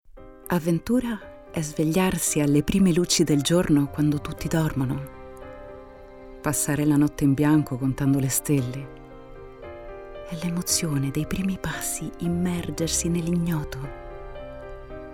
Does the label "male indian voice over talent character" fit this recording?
No